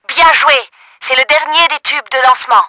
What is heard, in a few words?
LAST TUBE, french; Battle Engine Aquila; XBOX